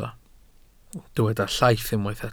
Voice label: "llaith", Welsh